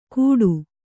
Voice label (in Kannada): "ಕುಡು", Kannada